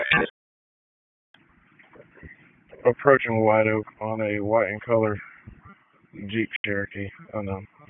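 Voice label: Sheriff's Office Dispatch; WSO DISP 1; wake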